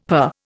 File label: "purr", Indian; Dictionary